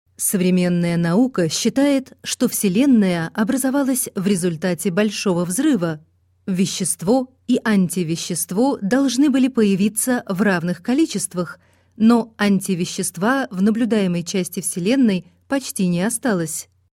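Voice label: Russian, female, corporate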